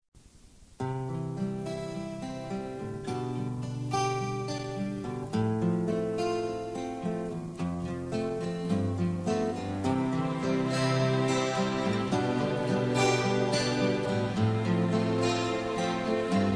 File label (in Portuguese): ROM NAMORO POR TELEFONE MASC